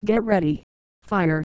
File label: "fire", Microsoft Mary, Worms speechbanks